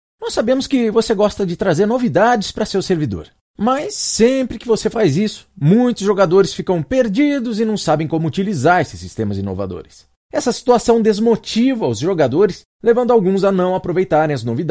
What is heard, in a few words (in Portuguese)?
voz apresentador games